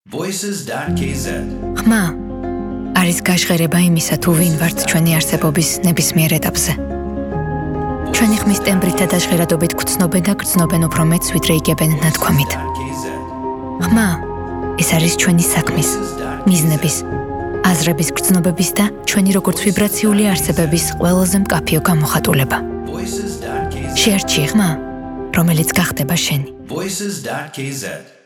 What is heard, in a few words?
woman